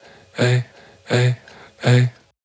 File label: Vocal Adlib; Loops; Tonal; Ableton Live 12 Lite; Core Library